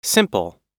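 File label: "simple", US English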